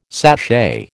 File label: "sachet", American